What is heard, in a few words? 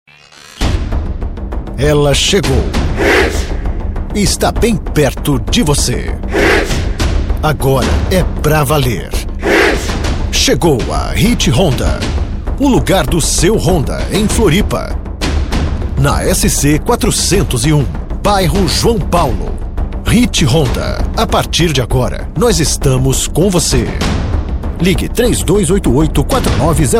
brazilian m commercial, portuguese bra voice; voice demos